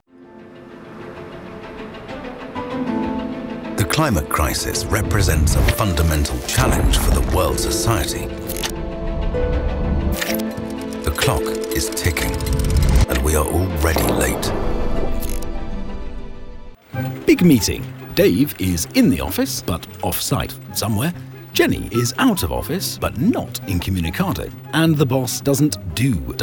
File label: Corporate Voiceover